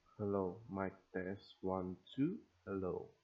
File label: 30db mic boost